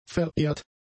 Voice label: "verehrt", German; adjectives